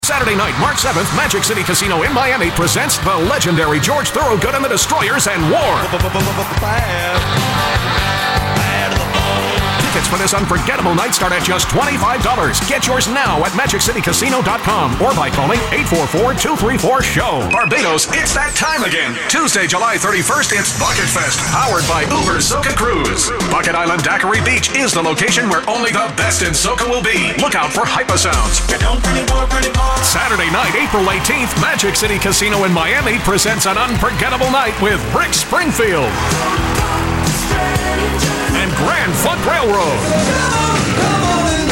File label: Concert Commercial VO